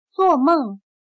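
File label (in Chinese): "做梦" Mandarin Chinese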